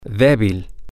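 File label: weak, spanish, male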